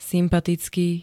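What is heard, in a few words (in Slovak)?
"sympatický", Slovak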